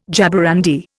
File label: "jaborandi", Indian